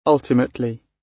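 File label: "ultimately", British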